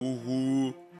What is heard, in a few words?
russian uwu